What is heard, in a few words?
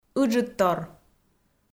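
"ыджыдтор", Komi, female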